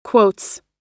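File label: "quotes", US English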